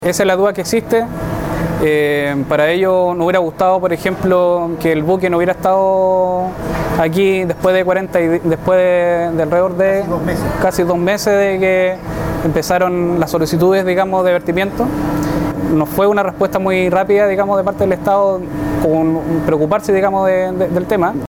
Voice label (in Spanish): cuña pescador radio